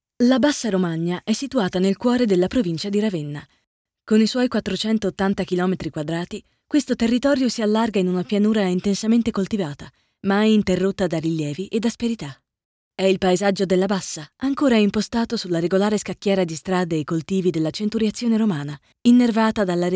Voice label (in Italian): Documentario